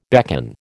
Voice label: "beckon", American